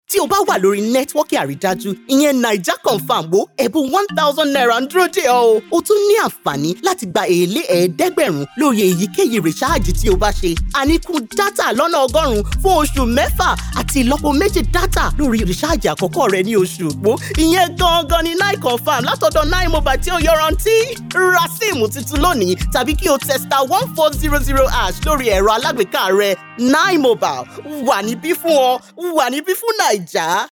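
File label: Yoruba, female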